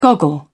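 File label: "goggle", British English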